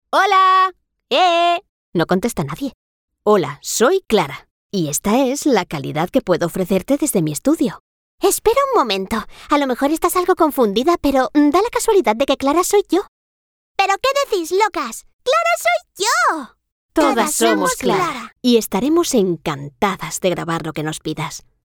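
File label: Spanish European Female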